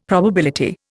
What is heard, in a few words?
"probability", Indian; Dictionary